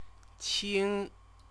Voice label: "qing", Chinese; CTCFL; University of Oxford